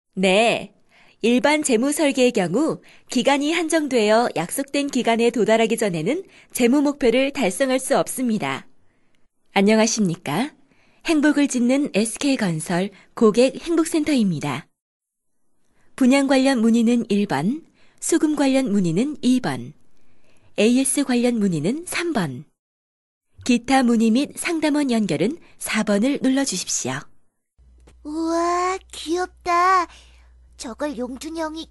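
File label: Korean, female, various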